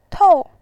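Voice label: "tou", Mandarin Chinese, fourth tone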